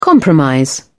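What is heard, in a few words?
"compromise", English, British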